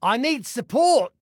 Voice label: Need Support, Male

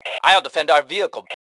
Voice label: Self Defend Vehicle, Male; T2 Male 03

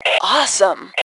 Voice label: Compliment Awesome, Female; T2 Female 01

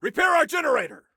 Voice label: Repair Generator, Soldier Male

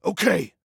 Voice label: Team Yes, Male

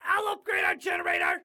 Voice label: Upgrade Self Generator, Excited Guy

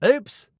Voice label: "Ooops", Male

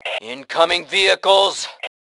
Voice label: Warn Vehicle, Male; T2 Male 03

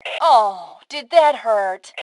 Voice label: Taunt Aww, Female; T2 Female 03

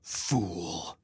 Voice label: Taunt Sarcasm, Male

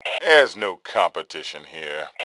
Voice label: Taunt Brag, Male; T2 Male 02

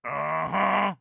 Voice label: Compliment Awesome, Soldier Male; Soldier Male 03

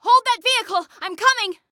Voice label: Need Hold Vehicle, Female; Female 02

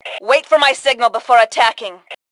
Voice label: Attack Wait, Female; T2 Female 01

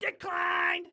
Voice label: Command Declined, Excited Guy

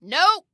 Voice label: "No", Female

